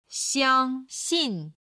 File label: "xiang1xin4", Chinese